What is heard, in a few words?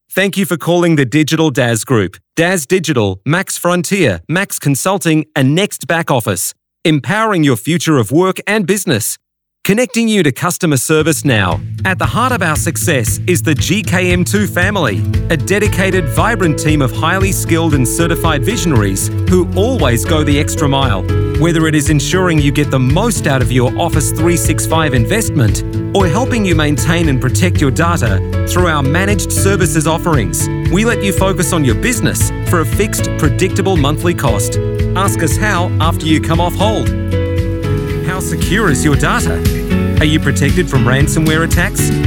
NZ PHONE